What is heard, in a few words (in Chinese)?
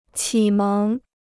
"启蒙", Chinese